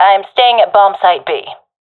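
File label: guarding bombsite b, professional female; vo; Counter Strike Model Pack; CS:GO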